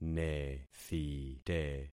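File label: "nethite", concatenated syllables; Affixing experiment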